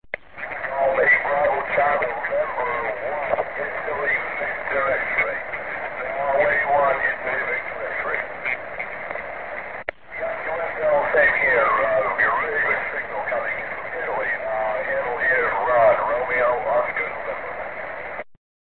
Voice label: qso